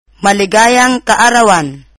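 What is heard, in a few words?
happy birthday tagalog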